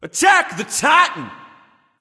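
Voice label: vox attack male; smite; zeus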